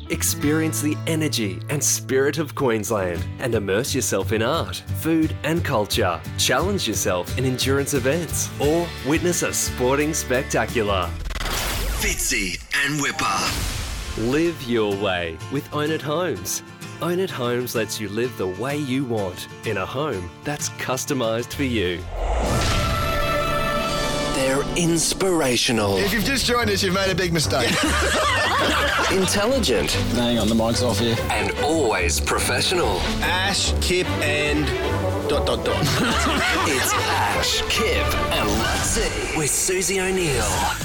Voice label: COMMERCIAL RADIO VO